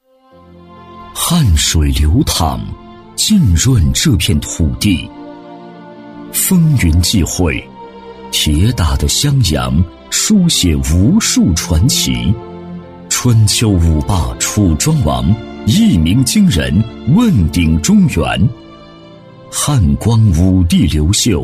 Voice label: 男 城市宣传片 磁性抒情; 高端厚重; 质感磁性; 三顾在襄阳

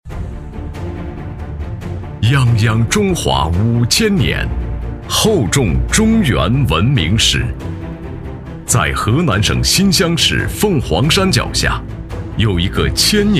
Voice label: 男 村落介绍 高端硬朗; 成熟厚重; 《五陵村》

